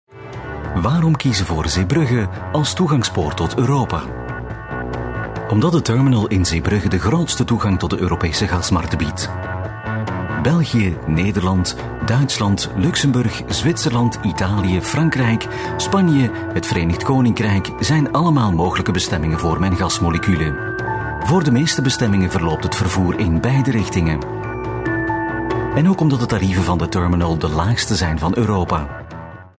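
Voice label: Flemish, male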